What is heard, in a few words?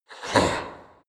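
demon short expressions, creatures; kreaturen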